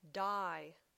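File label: "dye", English word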